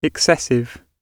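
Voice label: "excessive", British English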